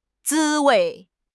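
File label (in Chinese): "滋味", Chinese; HSK6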